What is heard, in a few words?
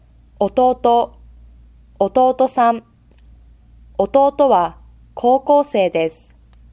"younger brother", japanese